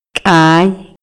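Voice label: Maya, male; INALI; MayaYuc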